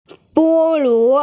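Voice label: "bo1luo2", Mandarin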